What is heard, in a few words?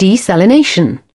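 "desalination", British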